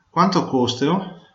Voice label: "how much", Venetian